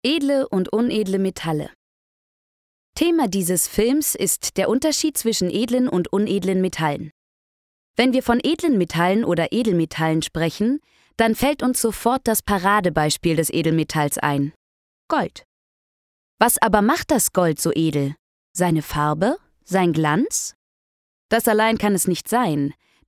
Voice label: deutsch frauen Lehrfilm; sprecher

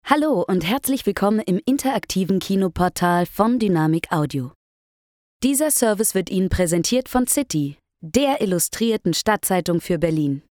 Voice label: Telefon, deutsch frauen; sprecher

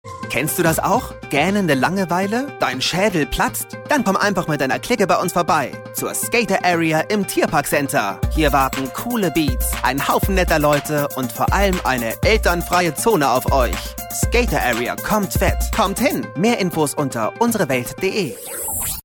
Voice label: werbung, deutsch maenner

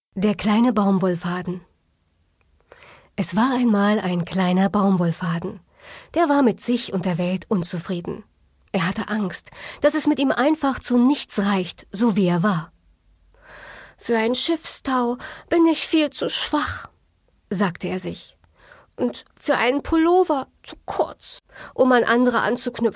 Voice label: kurzgeschichte, deutsch frauen; sprecher

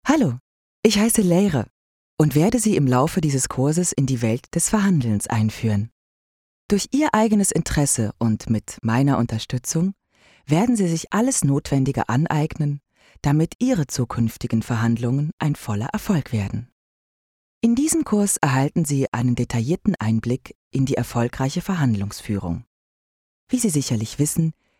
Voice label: elearning, deutsch frauen

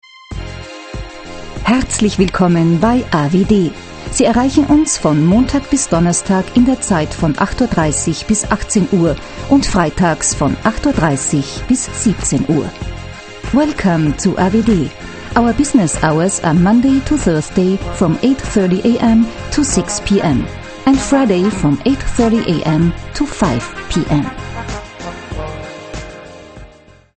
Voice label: oesterreichisch telefon; sprecher

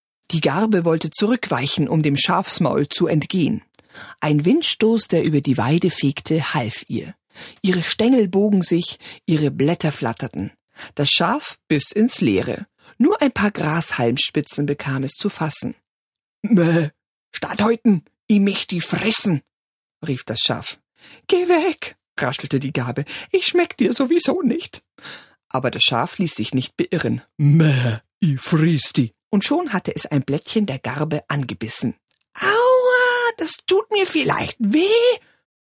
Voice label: hoerbuch

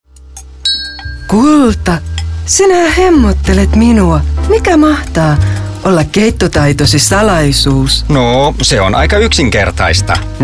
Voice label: fi werbung, finnisch; sprecher

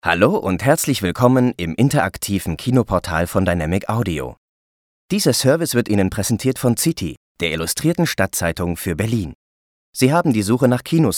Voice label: de telefon, deutsch maenner; sprecher

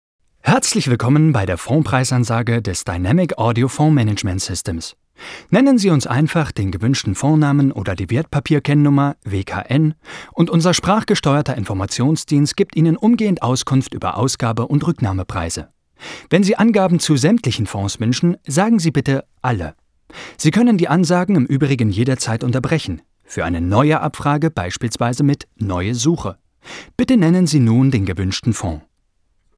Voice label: de telefon, deutsch maenner; sprecher

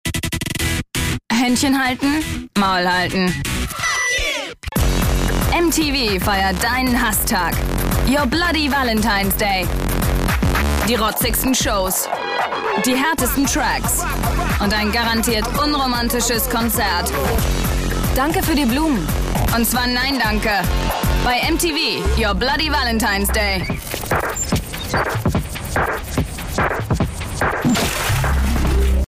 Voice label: TV, deutsch frauen; sprecher

